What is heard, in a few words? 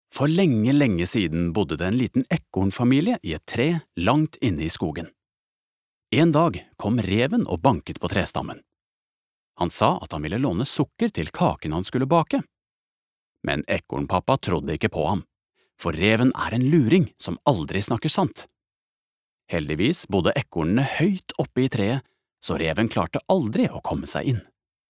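Norwegian childrens book; norsk; mann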